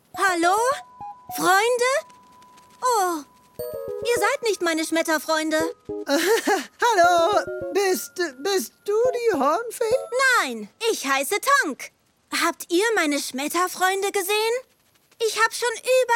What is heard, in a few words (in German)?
Kinderanimation; Tonk der Schmetterling